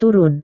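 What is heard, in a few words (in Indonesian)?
"turun", Indonesian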